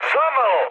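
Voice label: Serbian bomb failure mood high, speech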